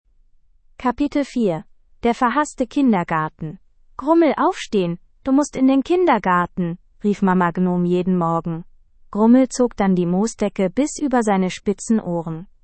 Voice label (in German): ki audio hörbuch; der kleine gnom